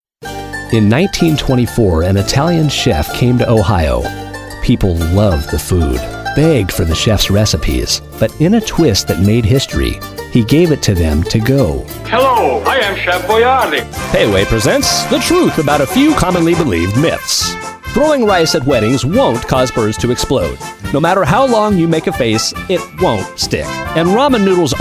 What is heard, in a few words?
Commercial